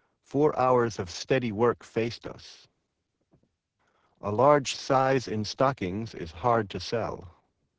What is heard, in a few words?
opus, male; lpcnet codec